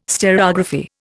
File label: "stereography", Indian